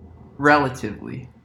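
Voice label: "relatively", English US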